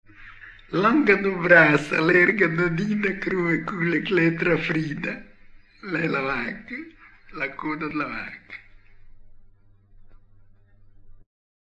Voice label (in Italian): indovinello, romagna